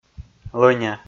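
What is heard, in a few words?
"лӧня", Komi, male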